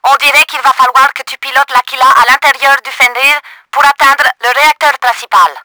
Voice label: FLY INSIDE, french; Battle Engine Aquila

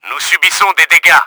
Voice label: UNDER ATTACK, french; Battle Engine Aquila

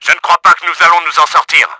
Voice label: HEALTH CRITICAL, french; Battle Engine Aquila

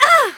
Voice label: stunt fem

